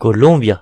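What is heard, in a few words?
"colombia", Breton; gcompris; geography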